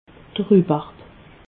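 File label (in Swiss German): "rubarb", Alsatian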